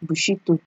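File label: "bushito", Nigerian Pidgin, female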